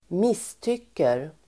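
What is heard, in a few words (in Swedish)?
"misstycker", Swedish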